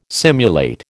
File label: "simulate", American